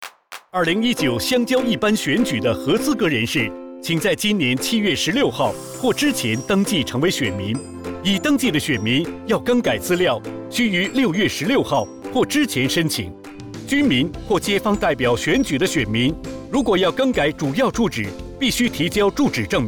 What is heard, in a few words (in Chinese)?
Putonghua